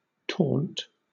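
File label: "taunt", British English; pronunciation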